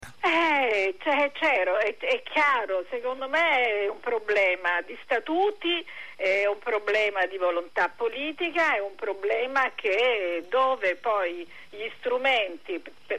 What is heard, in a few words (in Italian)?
Radio; Sintassi; Radio anchio